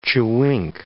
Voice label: "chewink", English